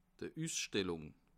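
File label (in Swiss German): "usstellung", Alsatian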